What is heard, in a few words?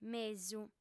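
"mesu", female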